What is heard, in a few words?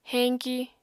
"heñki", apatani